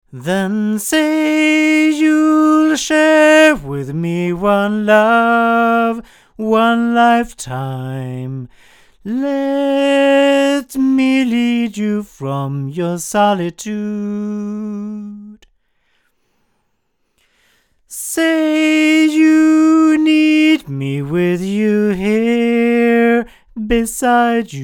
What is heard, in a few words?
male